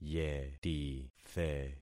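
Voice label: "yetithe", concatenated syllables; Affixing experiment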